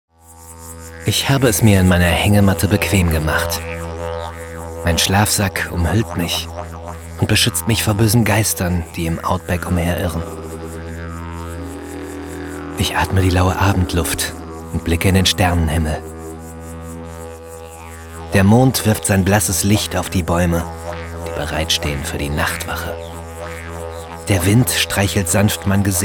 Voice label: reiseerzaehlung

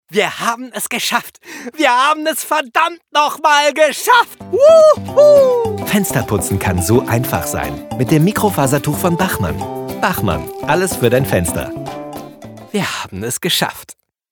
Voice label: werbung, Sprecher

